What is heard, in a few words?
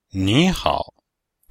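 "ni hao", Mandarin Chinese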